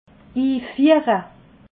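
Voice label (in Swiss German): "infiehre", Alsatian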